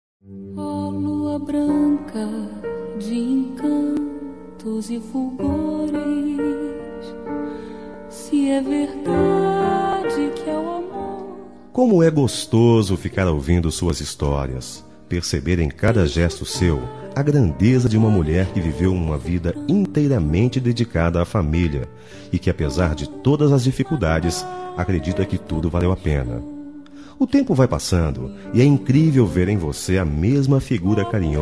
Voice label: Aniv. de Avó M

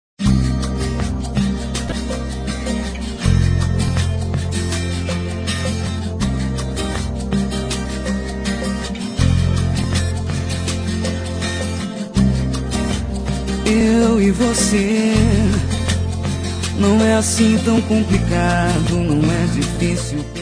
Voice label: Romântica

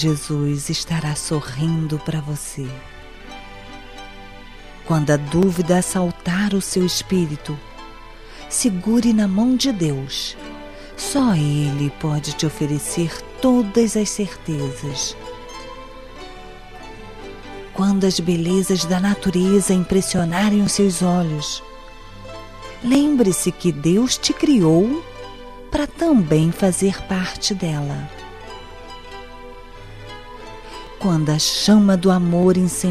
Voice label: Feliz Natal Religioso, F

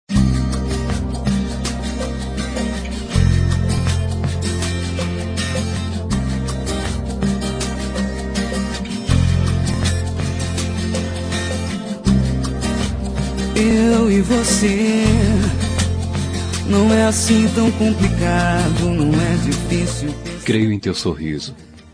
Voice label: Romântica, M

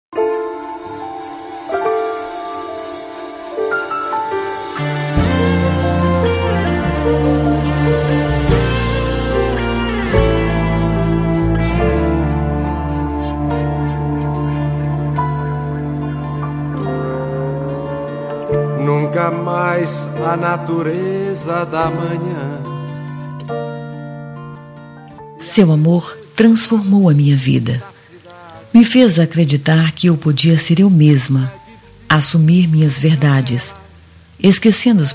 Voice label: Namorados pra Namoro GLS F

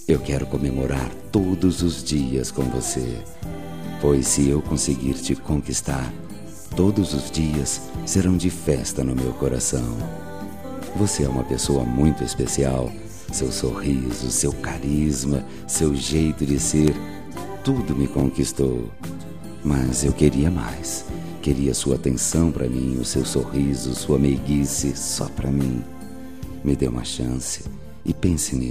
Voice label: Namorados Com Pedido de Namoro, M

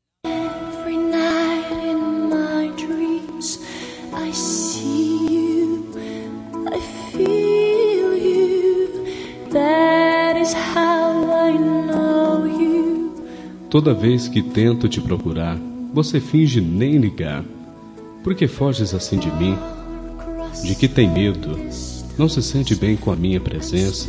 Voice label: Romântica, M

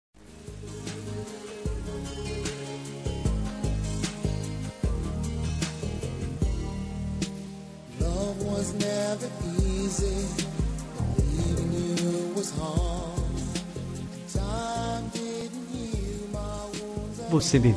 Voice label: Romântica Com Saudades

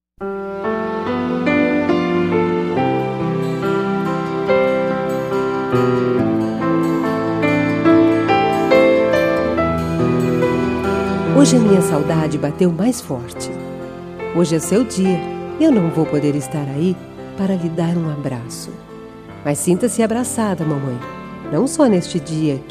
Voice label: Dia das Maes, F

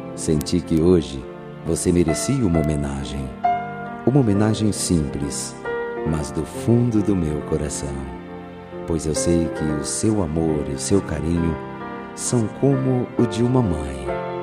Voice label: Dia das Maes pra Madrinha, M

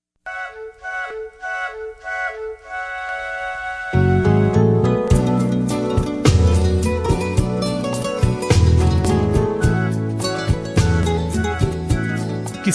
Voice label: Romântica Com Desculpas e Saudades

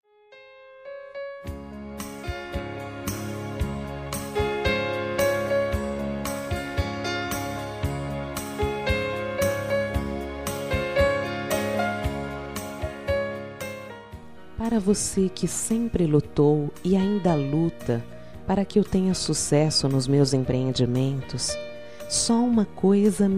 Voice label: Dia das Maes, F

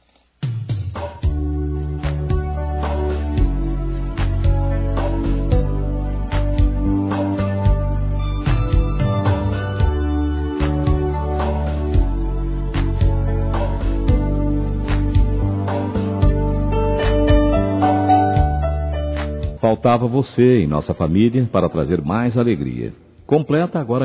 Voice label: Aniv. de Genro Distante, M

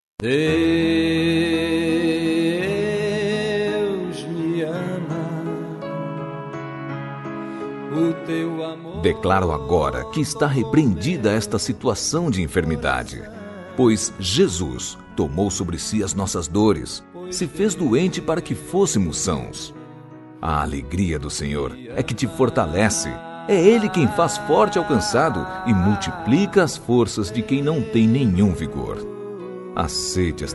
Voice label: Evang Otimismo pra Enfermo M

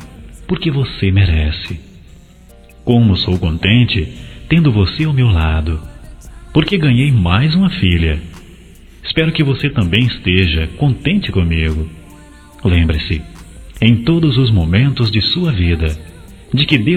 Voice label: Aniv. de Nora, M

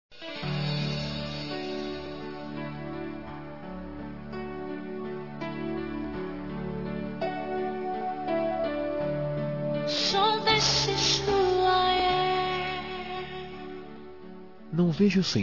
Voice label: Romântica Com Conquista

